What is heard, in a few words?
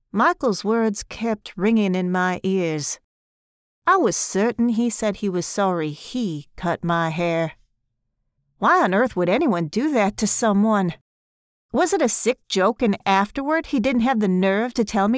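Audiobook Southern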